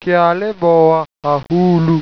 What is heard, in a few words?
thank you, Sesotho